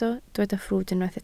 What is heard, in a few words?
"ffrwd", Welsh